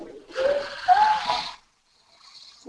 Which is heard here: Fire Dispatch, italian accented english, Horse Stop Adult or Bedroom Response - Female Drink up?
Bedroom Response - Female Drink up